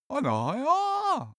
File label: cartoon male agree long